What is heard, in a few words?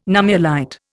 "nummulite", Indian